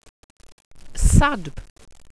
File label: "sadb", welsh pronouciations; Wales/welsh names